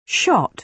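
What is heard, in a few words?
"shot", British English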